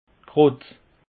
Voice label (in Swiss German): "krot", alsace; peche